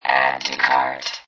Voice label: Robot Voice "Add To Cart"; Lynne Music